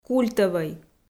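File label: "культӧвӧй", Komi, female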